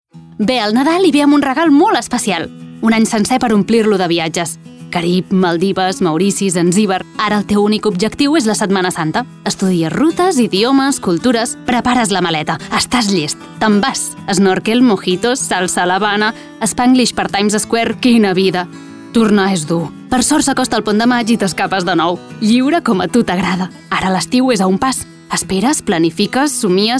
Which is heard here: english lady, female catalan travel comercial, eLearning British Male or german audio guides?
female catalan travel comercial